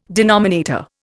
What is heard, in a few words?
"denominator", Indian